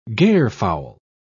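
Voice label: "garefowl", British English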